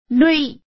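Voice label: "lui", Hokkien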